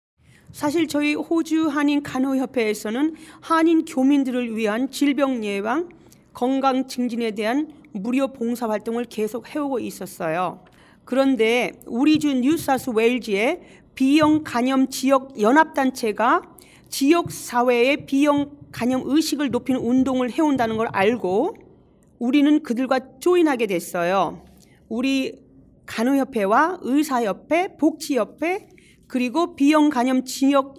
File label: Korean; hepatitis; AskHepatitisCampaign